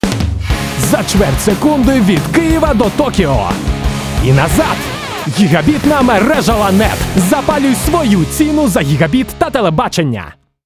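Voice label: ukrainian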